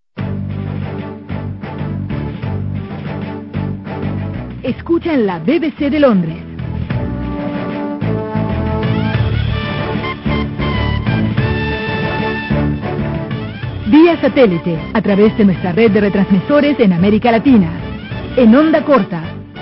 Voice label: bbcws spanish